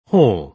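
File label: "hall", British English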